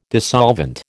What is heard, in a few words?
"dissolvent", American